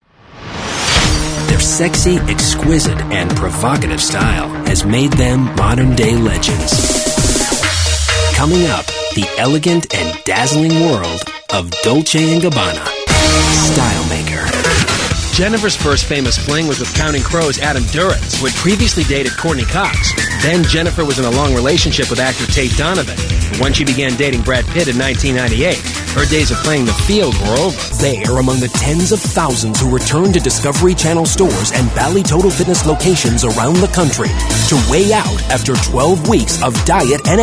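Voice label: narration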